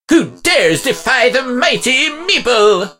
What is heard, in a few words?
meeple hurt; 奥利